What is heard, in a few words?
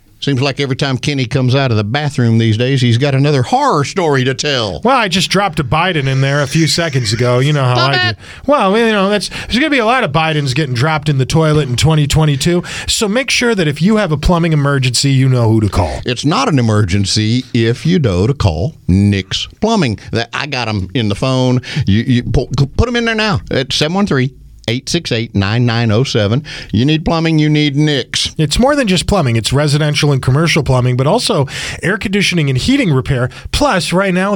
RADIO AD; nicks plumbing radio ads